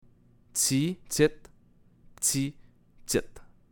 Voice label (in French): p'tit, québécois